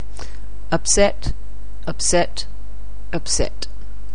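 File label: "upset", English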